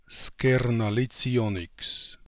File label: Skernalits ionniks, Beelzebub pronounciations; Fourth Way